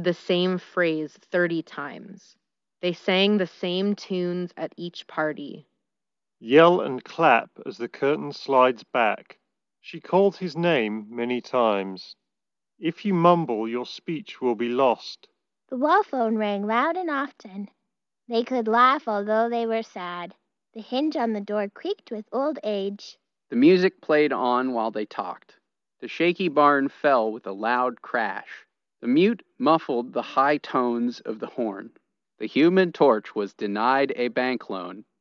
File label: Bose Noise Cancelling Headphones 700 Ideal microphone sample